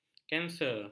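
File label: "kanser", Malay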